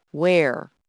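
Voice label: "were", English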